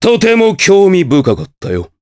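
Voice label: Victory, Japanese; Nicx; kingsraid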